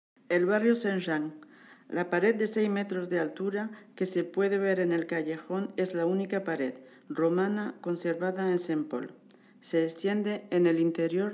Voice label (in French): ESPAGNOL; Quartier Saint Jean